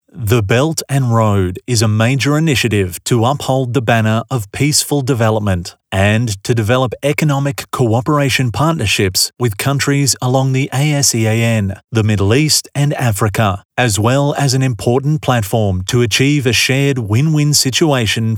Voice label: 外籍男 宣传; 防城港市

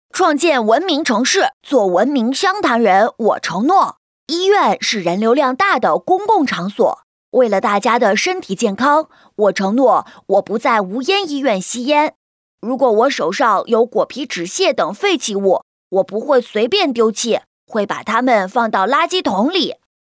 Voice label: 女 模仿 男童, 国语女声配音

